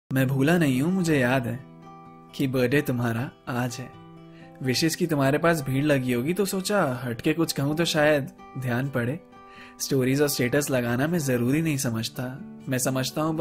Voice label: Happy Birthday Poetry Birthday Wish Poem